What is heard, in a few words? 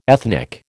"ethnic", American